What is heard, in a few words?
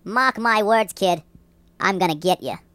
Gonna get you, Mobster; hedgewars